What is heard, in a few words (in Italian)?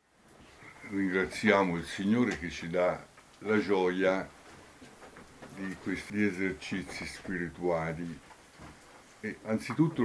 Pregare e rinascere dall alto, Catechesi